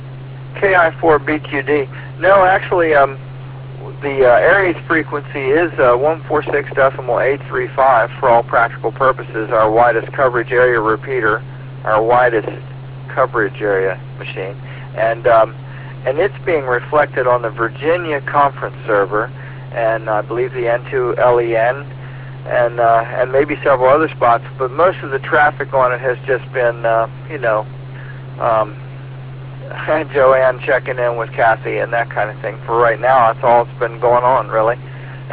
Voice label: echolink relais vhf